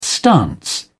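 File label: "stance", British English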